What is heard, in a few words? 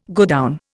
"godown", Indian